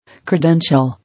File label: "Credential", English